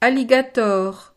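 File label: "alligator", French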